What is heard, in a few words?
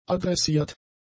"adressiert", german; adjectives